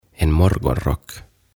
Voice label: "En Morgonrock" "Aamutakki"

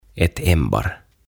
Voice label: "Ett Ämbar" "Sanko"

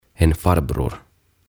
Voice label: "En Farbror" "Setä"

